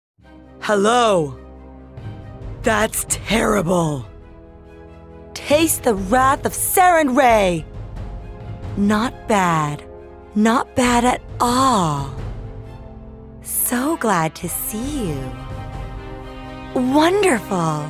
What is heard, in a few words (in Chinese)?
御姐-英语-女